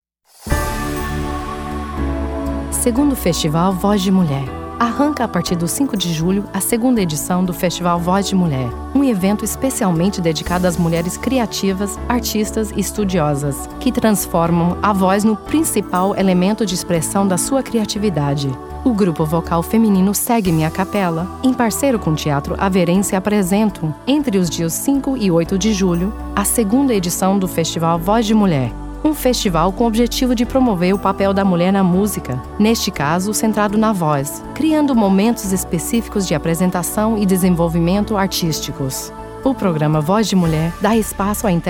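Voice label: POR BR Narr Anncr, Portuguese Brazilian narration announcer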